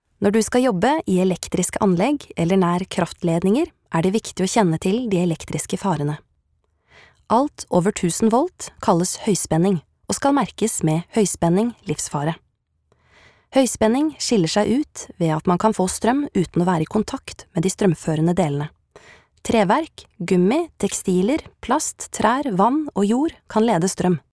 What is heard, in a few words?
no elearning